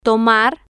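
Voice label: "tomar", Spanish, female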